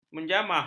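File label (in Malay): "menjamah", Malay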